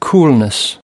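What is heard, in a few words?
"coolness", US English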